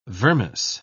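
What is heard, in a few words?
"vermis", British English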